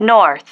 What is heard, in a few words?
"north", female